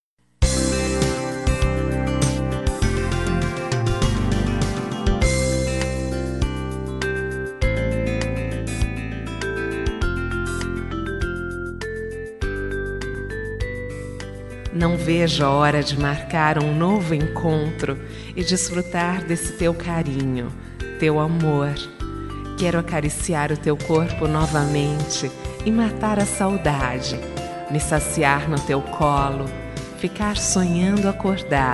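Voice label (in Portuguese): picante feminina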